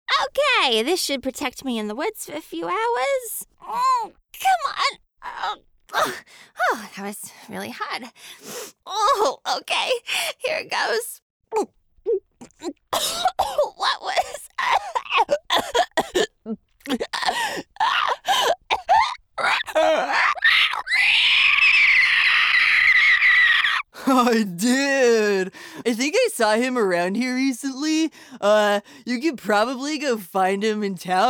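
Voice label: Character female; TaDa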